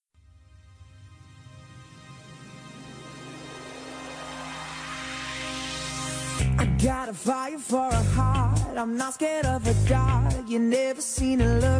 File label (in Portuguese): ANIV. FILHO, telemensagem aniversario filha filho